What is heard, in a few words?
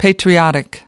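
"patriotic", American English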